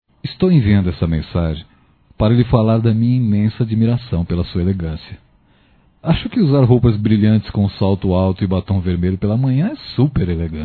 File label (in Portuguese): Você e muito perua Masculino; Telemensagens 2017